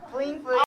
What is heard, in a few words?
Aegyo; Seventeen